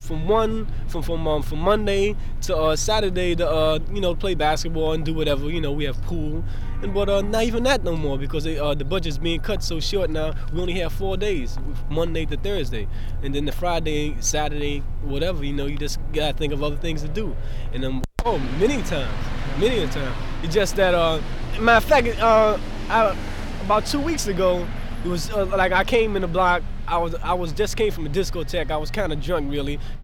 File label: New York interviews